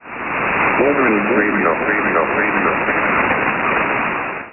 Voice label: Pirate Radio Echo FX ID; Wolverine